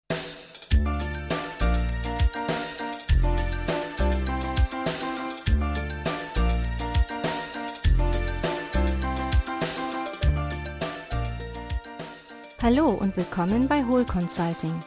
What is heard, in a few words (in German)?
ansage